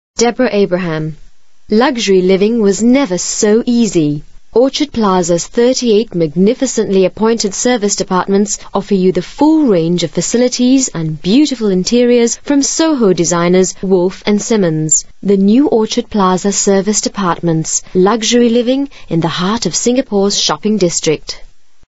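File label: Asian English, female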